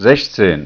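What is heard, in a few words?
"sechzehn", German; ZAEHLEN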